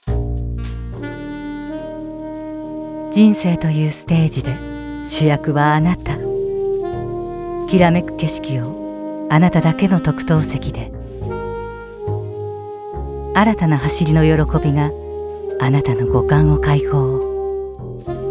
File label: female Japanese automotive commercial